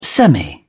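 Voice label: "semi", English, British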